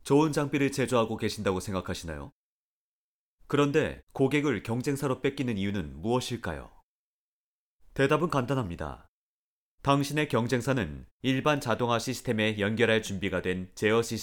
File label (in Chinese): 干音 机械设备宣传片